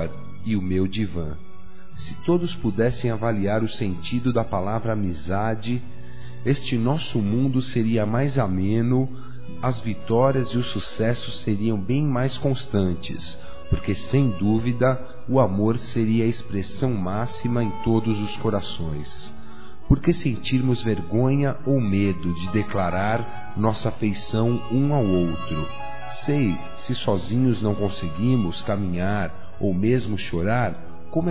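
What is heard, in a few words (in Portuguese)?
Amigo para Amigo, masculino; Telemensagens; Telemensagens 2017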